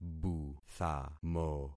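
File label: "buthamo", concatenated syllables; Affixing experiment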